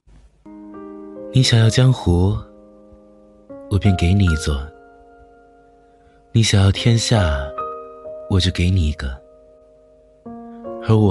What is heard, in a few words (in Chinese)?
古风 撩人